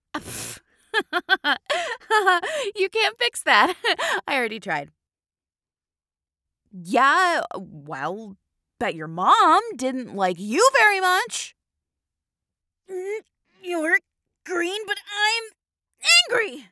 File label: Recruit Female